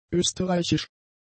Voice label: "österreichisch", German; adjectives